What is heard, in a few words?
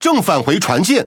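Chinese (Taiwan) Speech Pilots Fighter Land; ZH TW; World Of Warships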